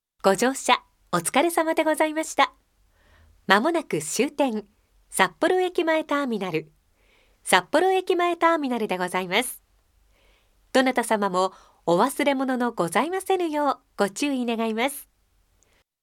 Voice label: japanese